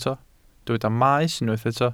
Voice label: "maes", Welsh

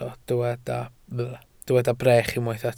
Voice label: "brech", welsh

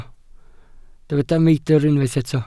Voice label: "meidr", Welsh

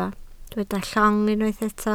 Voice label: "llong", Welsh

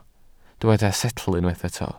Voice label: "setl", Welsh

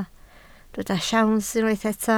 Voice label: "siawns", Welsh

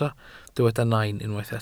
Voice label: "nain", Welsh

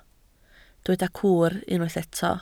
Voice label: "cwr", Welsh

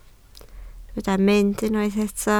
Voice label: "mynd", Welsh

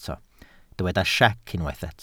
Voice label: "siec", Welsh

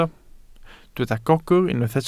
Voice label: "gogr", Welsh

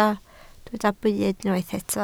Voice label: "byd", Welsh

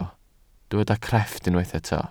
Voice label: "crefft", welsh

